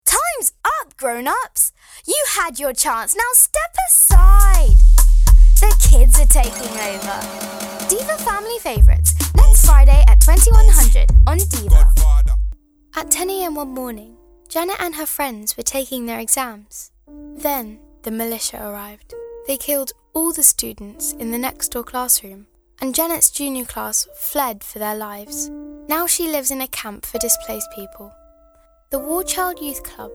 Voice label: Commercial UK